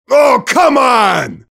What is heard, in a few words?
mobster negative vocalization